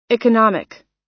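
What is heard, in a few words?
"economic", US English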